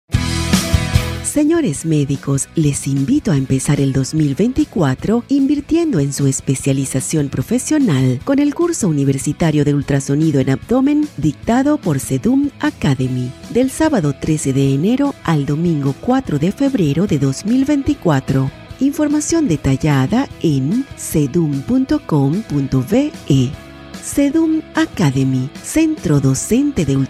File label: latin spanish voice corporate